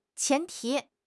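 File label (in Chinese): "前提", Chinese; HSK6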